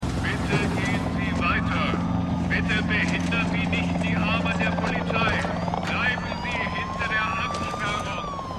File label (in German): Polizist Megafon; The Wire